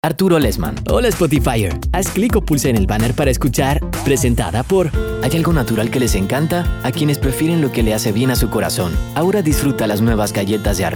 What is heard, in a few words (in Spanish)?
Locutor Comercial